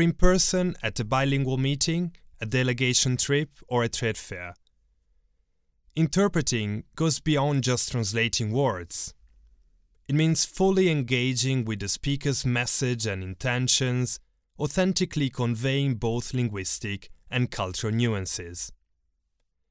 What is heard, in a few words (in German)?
stimmprobe en, voice over